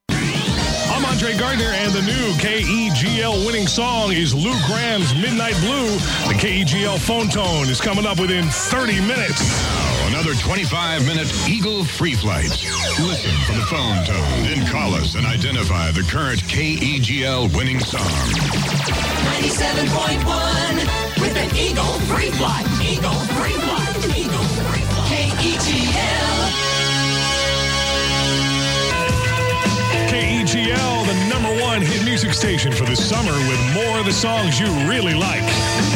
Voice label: Aircheck; KEGL All Hit 971